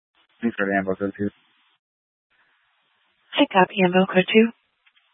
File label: Fire Departments, scanner